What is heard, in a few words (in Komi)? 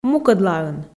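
"мукӧдлаын", Komi, female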